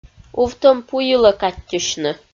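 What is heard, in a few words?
"увтӧм пу йылӧ каттьысьны", Komi, female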